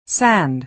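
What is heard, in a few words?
"sand", American English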